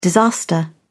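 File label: "disaster", British English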